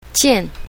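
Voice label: "jian4", pinyin, south, female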